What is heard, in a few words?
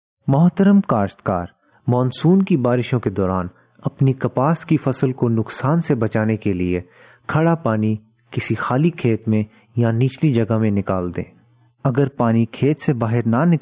AD Advisory push call punjabi